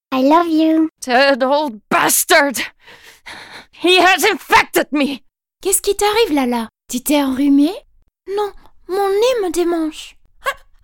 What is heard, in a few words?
Voice CHARACTERS NL EN FR